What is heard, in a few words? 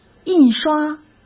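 "印刷", Mandarin Chinese; hsk; chinese-flashcards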